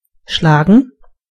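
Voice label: "beat", German; gcompris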